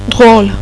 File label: "funny", "drole", French; Personality